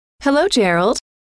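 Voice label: "hello", female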